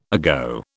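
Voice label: "ago", British English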